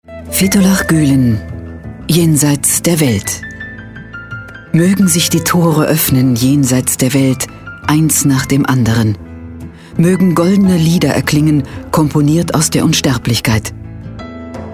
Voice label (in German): weibliche sprecherin fuer voice over